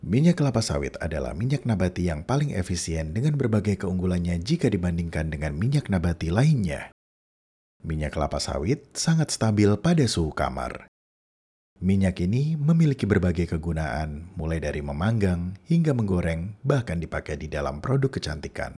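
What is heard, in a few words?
formal Indonesian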